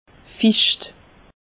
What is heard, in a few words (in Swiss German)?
"ficht", alsace; meteo